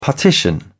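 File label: "partition", British English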